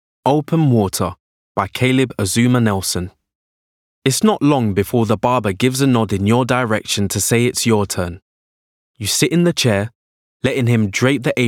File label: AUDIOBOOK; OPEN WATER